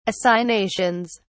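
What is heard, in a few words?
"assignations", British English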